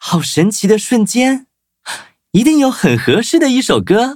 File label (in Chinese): upgrade, zh-hant; Cookie Run